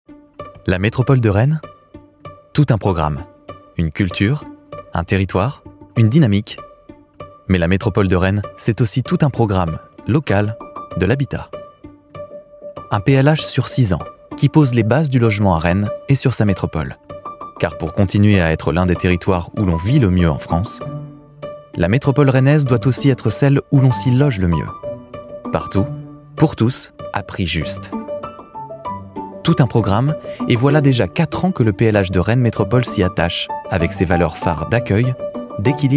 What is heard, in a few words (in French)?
instit, Voix off; institutionnel; Enregistrements dans la cabine speak